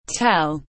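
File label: "Tell", English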